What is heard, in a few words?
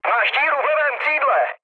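left wing, Czech, mood low; speech; War Thunder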